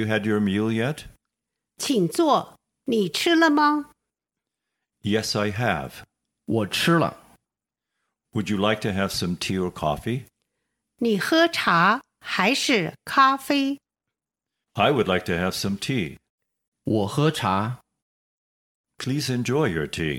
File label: Dialog